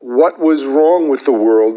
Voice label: LoFi Phrases, LoFi Vocals; Vengeance Samples